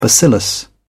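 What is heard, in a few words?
"bacillus", British English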